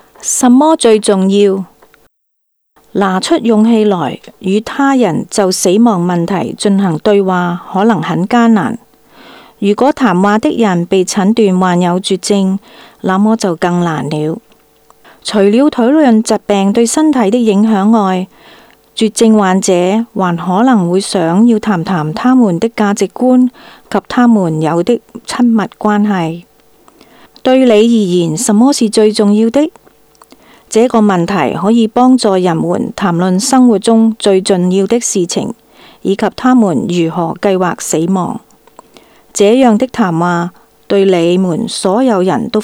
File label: Cantonese; Palliative Care